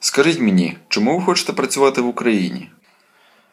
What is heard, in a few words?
dialogue, Ukrainian